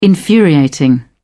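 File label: "infuriating", British English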